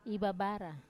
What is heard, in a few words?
"ivabara", I swell, Wamesa